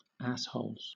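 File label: "assholes" English, British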